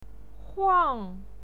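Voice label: "huang", Mandarin Chinese pinyin, fourth tone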